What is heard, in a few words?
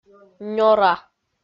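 "нёра", Komi, female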